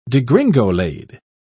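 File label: "degringolade", British English, dictionary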